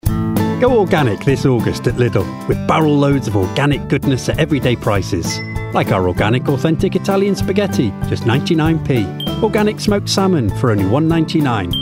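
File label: Commercial, male; Lidl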